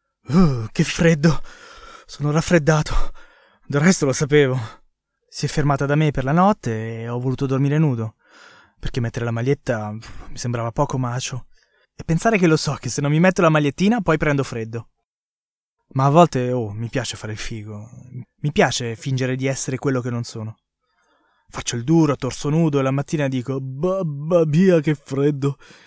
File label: italian audiobooks